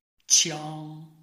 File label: "qiang1", Chinese